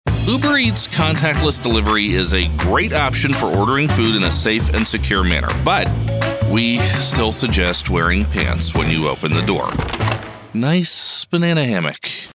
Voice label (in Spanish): USA Masculino